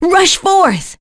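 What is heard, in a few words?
Vox Skill, voices heroes English; Seria; kings raid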